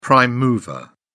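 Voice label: "prime mover", British English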